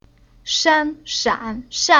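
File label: "Shan", Chinese syllable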